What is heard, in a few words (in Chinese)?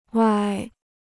"歪", Chinese